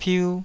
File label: "piu2", taiwanese